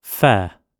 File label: "fair", English